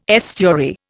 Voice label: "estuary", Indian